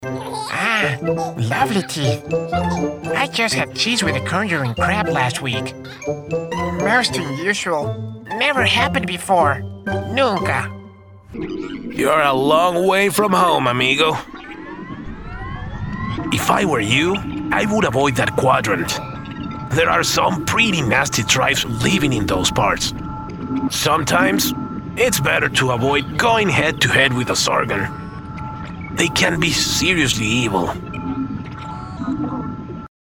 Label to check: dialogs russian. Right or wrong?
Wrong